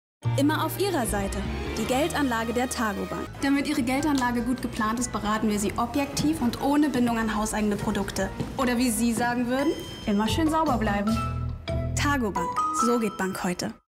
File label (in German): Werbung; Targobank